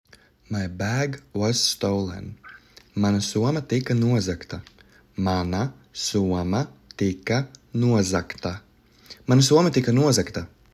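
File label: My bag was stolen, Latvian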